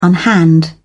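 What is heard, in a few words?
"unhand", British English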